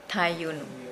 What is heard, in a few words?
Nukuoro; thirsty